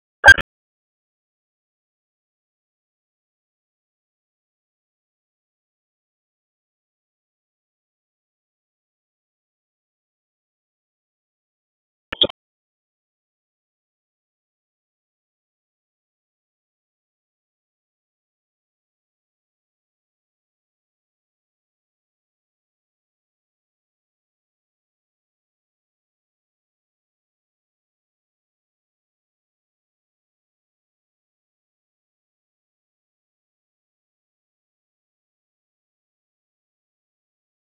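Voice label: Police Northwest District Tac, radio; Raleigh; p25; wake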